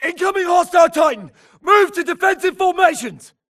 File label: grunt flee Player Titan Call; imc; Titanfall 2